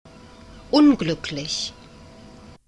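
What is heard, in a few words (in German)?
"unglücklich", German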